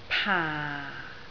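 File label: "paa4", Cantonese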